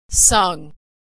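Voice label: "sung", American English; verb; Consonant Sound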